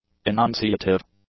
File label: "enunciative", English; adjectives